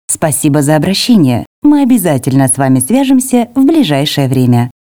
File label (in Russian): Автоответчик